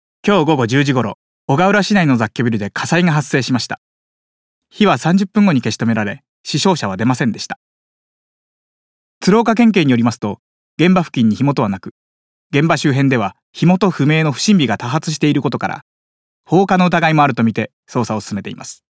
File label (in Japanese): ニュース